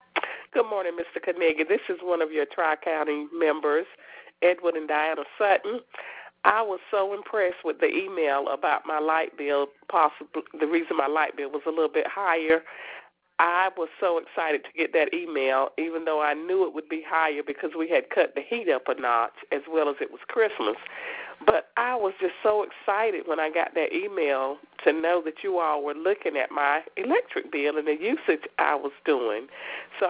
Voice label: Voice Message